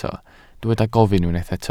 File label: "gofyn", Welsh